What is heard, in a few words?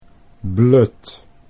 "blut", Dutch